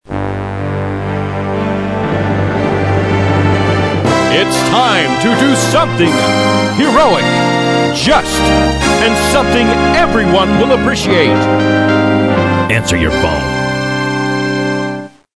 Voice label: heroic answer your phone